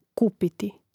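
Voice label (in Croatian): "kupiti", Croatian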